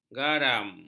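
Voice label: "garam", Malay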